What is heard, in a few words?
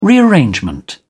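"rearrangement", British English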